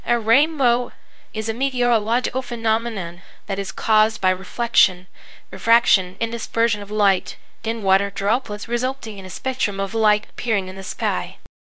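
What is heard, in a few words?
speaker, American English; piper-voices; en US; libritts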